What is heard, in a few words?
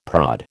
"prod", American